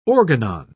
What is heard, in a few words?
"organon", pronounce